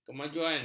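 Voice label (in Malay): "kemajuan", Malay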